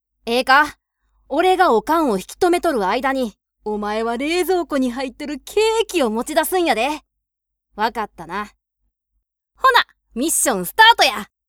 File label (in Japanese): 少年（関西弁）